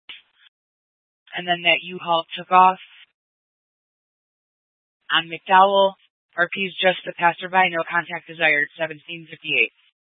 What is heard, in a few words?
Scottsdale Police, Simulcast